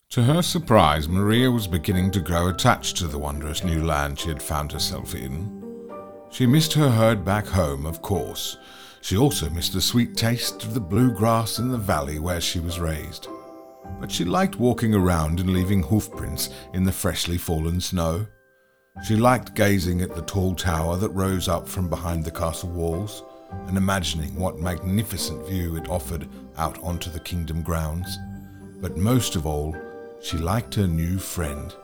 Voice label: Audiobook